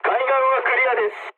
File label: jp chat; War Thunder